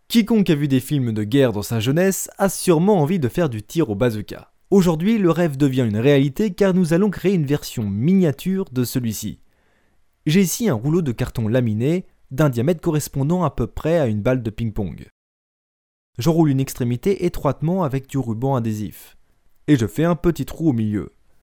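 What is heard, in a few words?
french male; lektoring; fra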